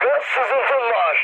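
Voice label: damaged water, mood med, Turkish; War Thunder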